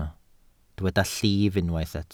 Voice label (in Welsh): "llif", Welsh